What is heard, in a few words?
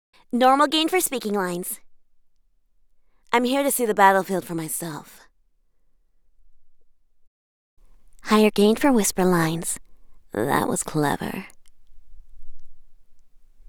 Raw Sample u87ai Neve5211